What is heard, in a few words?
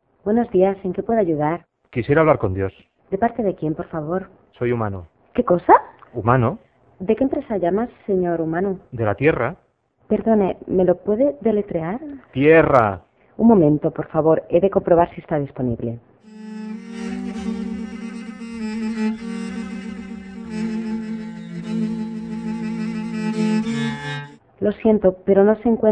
Convers Spanish